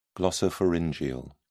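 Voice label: "glossopharyngeal", English, British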